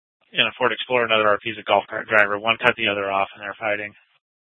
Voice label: Scottsdale PD Sth Dispatch, scanner